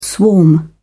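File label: "swarm", British English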